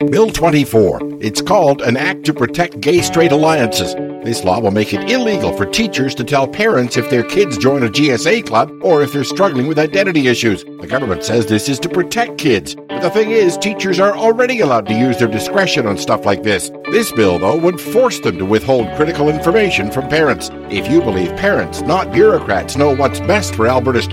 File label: radio ad